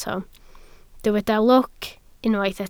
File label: "lwc", Welsh